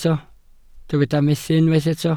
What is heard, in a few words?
"methu", Welsh